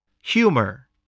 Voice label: "humour", voice spelling

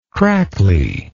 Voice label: "crackly", English

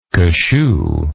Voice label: "cashoo", English

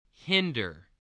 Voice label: "hinder", English, spelling

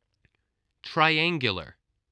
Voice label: "triangular", voice

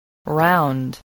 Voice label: "round", English spelling

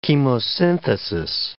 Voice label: "chemosynthesis", English

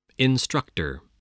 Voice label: "instructor", voice spelling

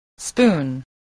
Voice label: "spoon", voice

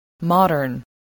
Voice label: "modern", voice, spelling

